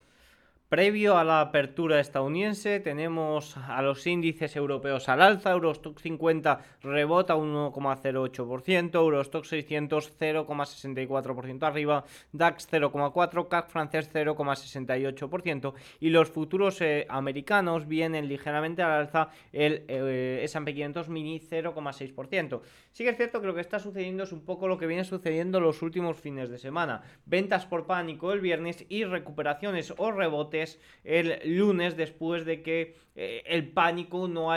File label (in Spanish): radio; apertura americana al alza despues del panico del viernes